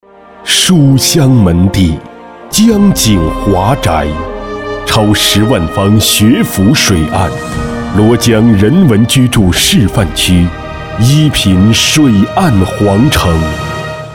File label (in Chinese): 男, 广告, 地产, 厚重大气